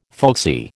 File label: "folksy", American